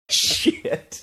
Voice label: quietly saying "shit"